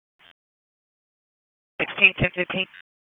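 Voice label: Dispatch, p25; WSO DISP 1; Wake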